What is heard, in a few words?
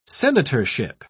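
"senatorship", English, British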